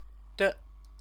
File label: "de", Chinese